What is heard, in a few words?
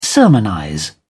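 "sermonize", British English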